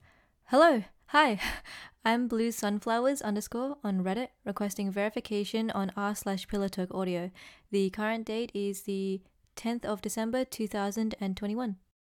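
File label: verification, Female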